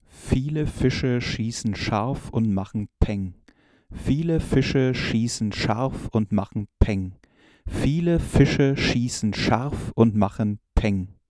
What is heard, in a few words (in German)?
sibilanten plosive; img stageline dm-9s